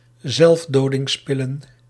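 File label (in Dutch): "zelfdodingspillen", Dutch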